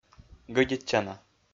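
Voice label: "гӧдитчана", Komi-Zyrian, male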